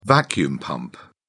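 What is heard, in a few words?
"vacuum pump", British English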